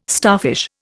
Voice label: "starfish", Indian

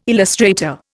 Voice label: "illustrator", Indian

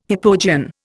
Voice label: "hypogean", Indian

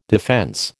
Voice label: "defence", American

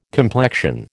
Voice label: "complexion", American

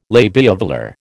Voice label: "labiovelar", American

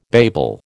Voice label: "babel", American

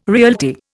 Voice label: "realty", Indian

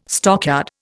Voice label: "stockyard", Indian

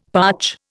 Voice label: "parch", Indian; Dictionary

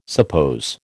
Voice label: "suppose", American

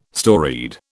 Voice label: "storied", British

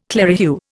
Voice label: "clerihew", Indian

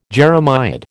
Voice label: "jeremiad", American

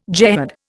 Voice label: "jehad", Indian

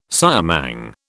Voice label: "siamang", British

